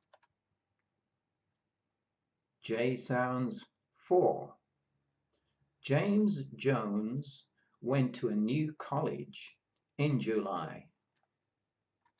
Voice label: J sounds, pronunciation of australian english